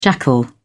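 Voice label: "jackal", British English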